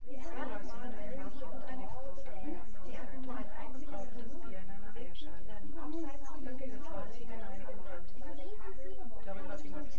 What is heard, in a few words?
female synth conv 5cm sim, female; SASSEC07